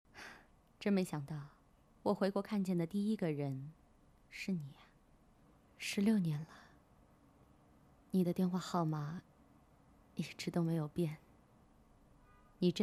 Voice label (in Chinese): 女, 游戏动漫, 台词, 御姐音